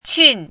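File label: "qin4" Mandarin Chinese, falling tone; chinese-voice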